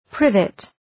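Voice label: "privet", English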